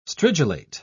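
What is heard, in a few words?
"stridulate", English, British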